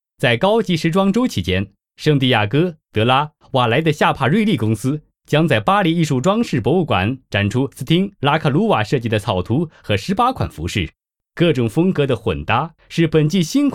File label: Mandarin male; Foreign language voice over